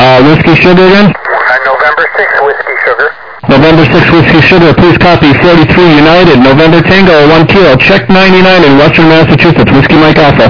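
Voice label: upper sideband 21MHz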